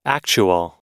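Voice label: "actual", US English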